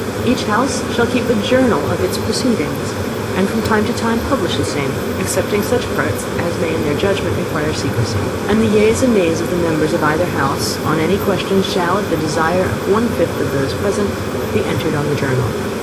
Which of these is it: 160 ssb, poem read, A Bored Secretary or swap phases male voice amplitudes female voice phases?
swap phases male voice amplitudes female voice phases